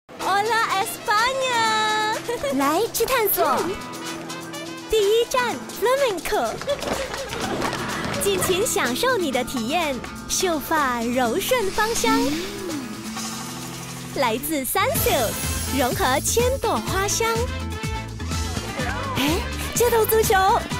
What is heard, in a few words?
Mandarin Announcer, Style: Call to Action, Energetic; Lotus's